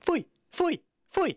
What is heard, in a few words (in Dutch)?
foeifoeifoei, Dialoog; Home-made